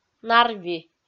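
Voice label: "нарви", Komi, female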